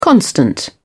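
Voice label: "constant", English, British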